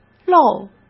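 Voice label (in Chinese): "漏" Mandarin Chinese; hsk; chinese-flashcards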